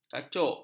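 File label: "kacuk", Malay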